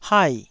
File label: "hai4", taiwanese